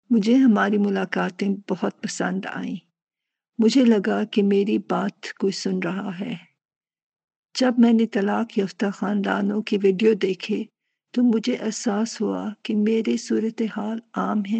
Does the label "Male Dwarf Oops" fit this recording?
No